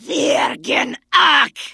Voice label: Vrykul Female Warrior Greeting